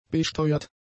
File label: "beigesteuert", German; adjectives